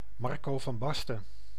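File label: "Marco van Basten", Dutch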